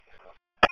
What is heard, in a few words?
Police Sheriff Dispatch